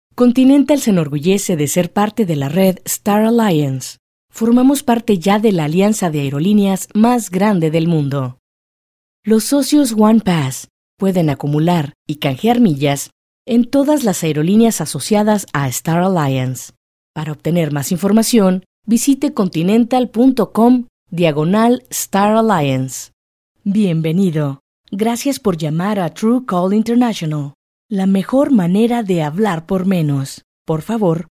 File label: Spanish Female